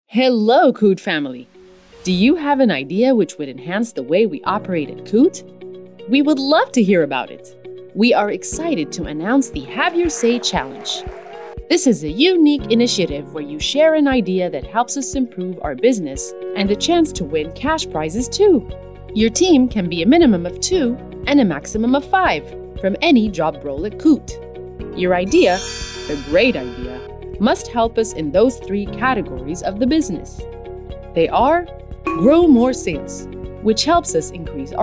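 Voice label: English Commercial food; KOUT food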